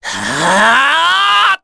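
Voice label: Vox Casting, Korean; Ezekiel; kingsraid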